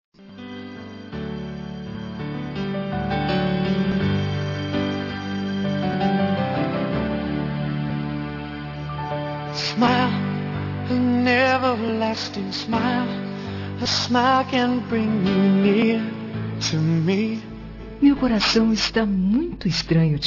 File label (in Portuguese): Romântica Com Conquista